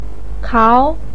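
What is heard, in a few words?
"kao" second tone, Mandarin Chinese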